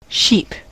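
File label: "sheep", US English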